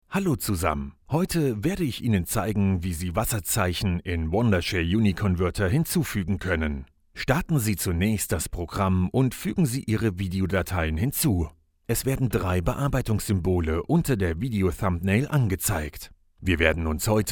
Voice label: VO german; wondershare add watermark to video